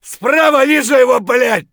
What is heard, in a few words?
flank right, voice; Escape from Tarkov